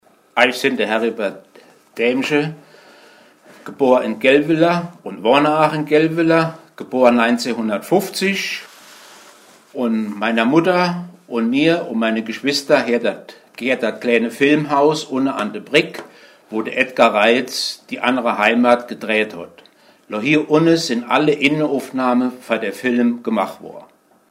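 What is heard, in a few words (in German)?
vorstellung, O-Ton Hunsrück; Gehlweiler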